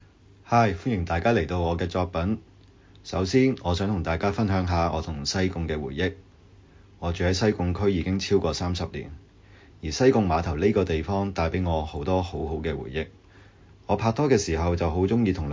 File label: cantonese; Hi sai kung; 嗨！西貢; 西貢海藝術節｜Sai Kung Hoi Arts Festival